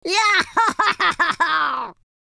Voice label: lose loop, Scotsman; speech

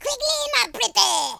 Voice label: "hurry", French; speech

